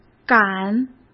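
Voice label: "感", Mandarin Chinese; hsk; chinese-flashcards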